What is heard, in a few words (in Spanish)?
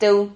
"teu", Silabas